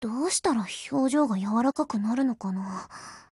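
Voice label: BUILD OVER, Japanese; GF Alarm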